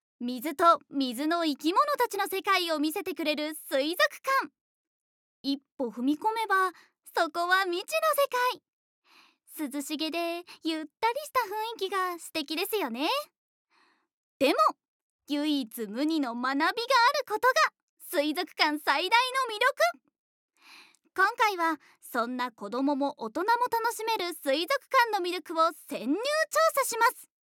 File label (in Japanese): narration, ナレーション; ナレーション①